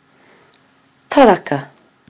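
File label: "taraka", Māori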